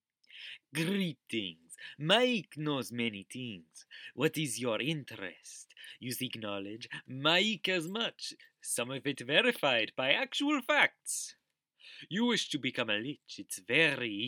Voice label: audition, khajiit voice auditions; maiq

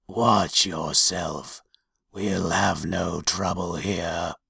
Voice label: dunmer take, dark elf voice auditions

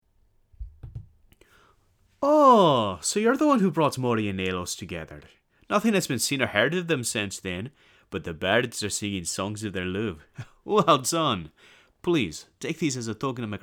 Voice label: breton audition; skywind; lucky charms

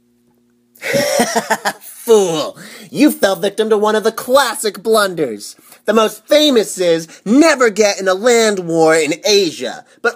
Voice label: bosmer male audition, wood elf voice auditions

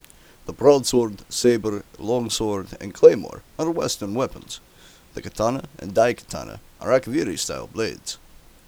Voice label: nordic blacksmith audition

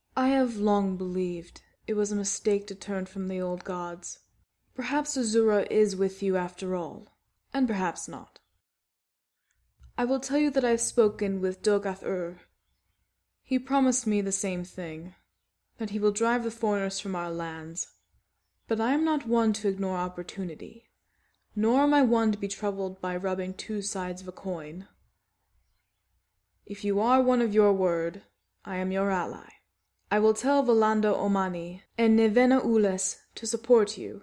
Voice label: voice audition dark elf female; skywind

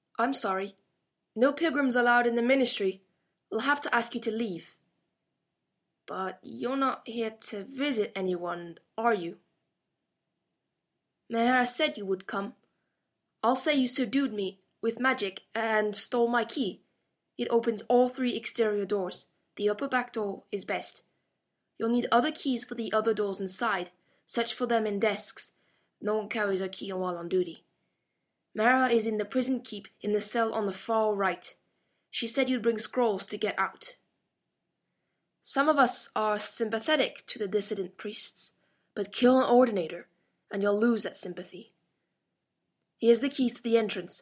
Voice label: dark elf voice auditions; skywind; alvela saram

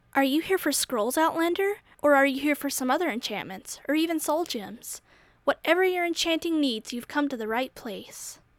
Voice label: wood elf, wood elf voice auditions

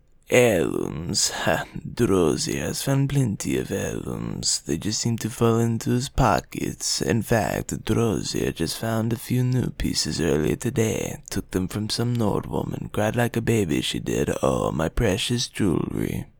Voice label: khajiit voice audition, voice acting

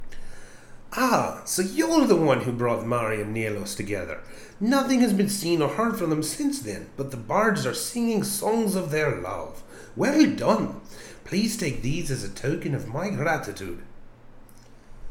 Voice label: breton keeper, breton voice auditions

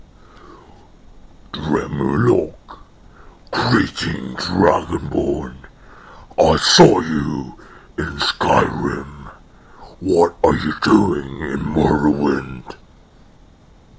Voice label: dragonish, unique voice auditions

